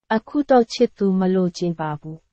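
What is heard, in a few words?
Burmese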